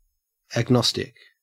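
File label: "agnostic", Australian English; pronunciations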